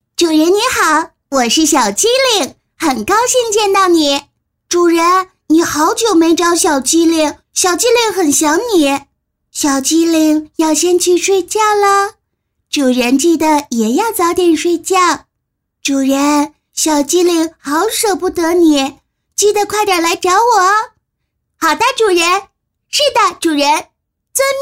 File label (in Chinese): 女 动漫 女童5到6岁